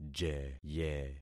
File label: "jeye", concat syllables; Affixing experiment